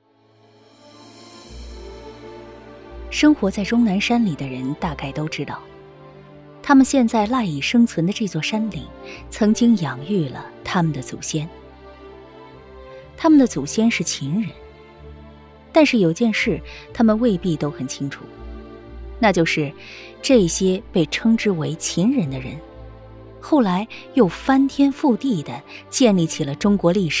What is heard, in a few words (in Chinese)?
纪录片历史